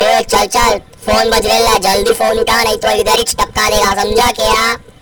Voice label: Alien Language

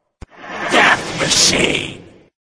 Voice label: zombie voice; death machine

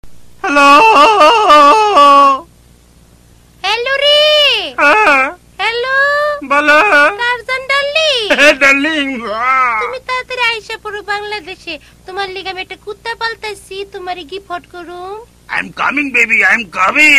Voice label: bangla mobile talk; ringtones; hip-hop

